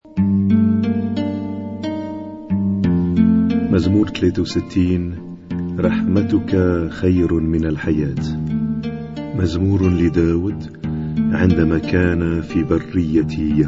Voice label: Arabic Psalm Zaboor